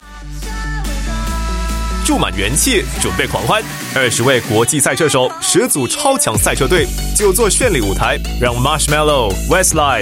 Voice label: Mandarin Commercial; F1; Singapore Grand Prix

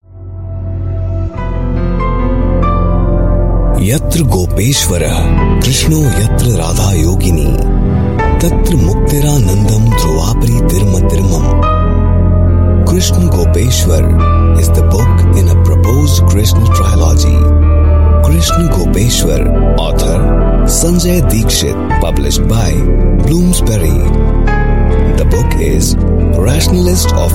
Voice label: Sanskrit English Soft Mix, India Male

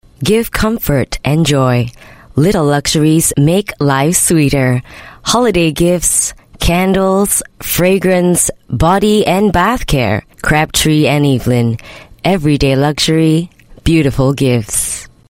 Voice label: Asian English intimate read

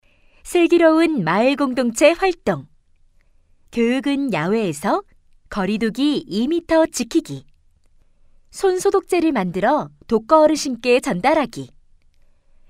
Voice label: Korean voice sample

